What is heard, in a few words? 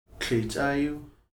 racket, Heiltsuk